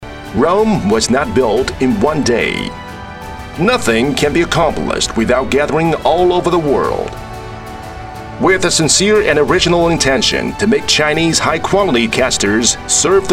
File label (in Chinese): 英男 美式 专题 中年音色 浑厚大气